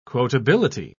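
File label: "quotability", English, uk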